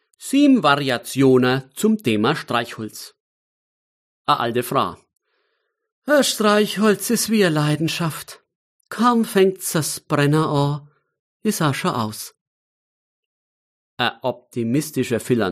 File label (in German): Mundart